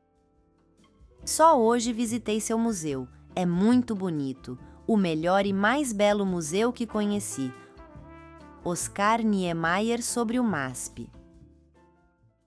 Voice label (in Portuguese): narracao; citacao oscar